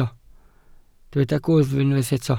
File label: "gwddf", Welsh